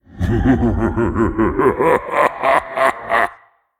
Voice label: Male Demon; MalGanis; Uther Mission7Intro